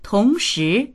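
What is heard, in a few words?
"tong2shi2", Chinese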